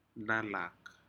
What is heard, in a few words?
"nalak", Aneityum; fish